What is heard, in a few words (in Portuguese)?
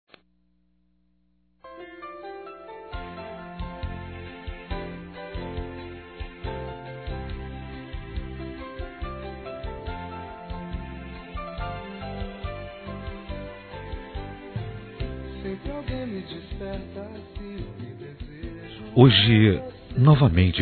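SAUDADE, masculino; Telemensagens; Telemensagens 2017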